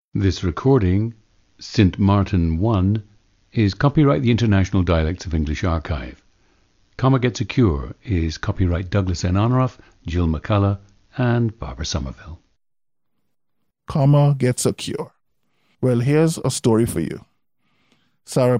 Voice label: Sint Maarten, International Dialects of English; Sint Maarten 1; a 39-year-old man from Sint Maarten.